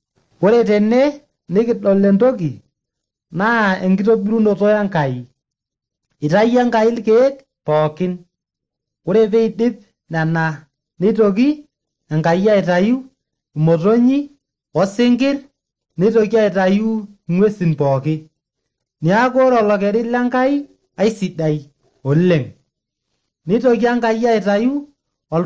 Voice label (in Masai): maasai bsv nt drama; good news; bible